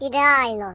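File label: "Perfect", British English; hedgewars; Default uk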